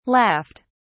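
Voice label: "laughed", British English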